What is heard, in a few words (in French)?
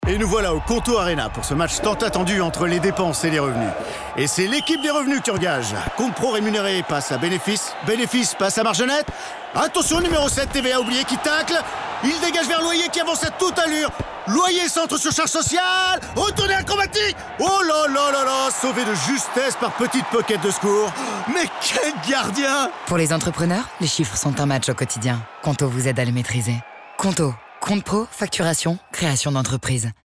spot radio Commentateur Foot; 30s; QONTO